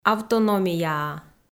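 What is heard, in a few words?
"автономияа", Komi-Zyrian, female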